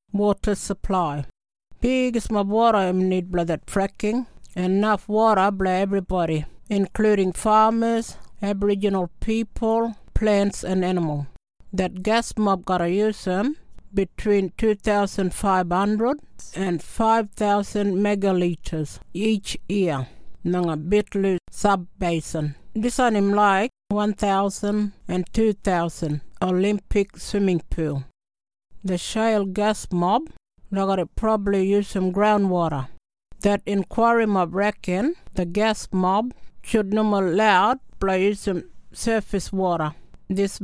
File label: KRIOL; WATER SUPPLY